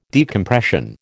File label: "decompression", American